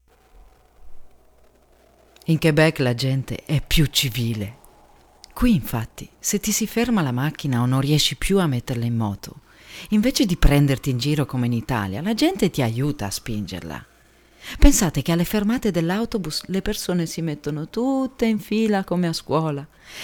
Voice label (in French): voix italien